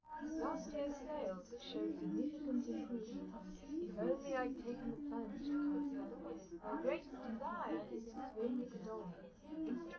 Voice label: female liverec; underdetermined; SiSEC11